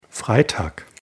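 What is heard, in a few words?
"freitag", German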